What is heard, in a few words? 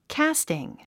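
"casting", English word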